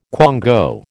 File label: "quango", American